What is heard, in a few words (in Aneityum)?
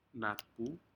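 "natpu", Aneityum word, shellfish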